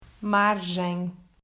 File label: "Margem", Portuguese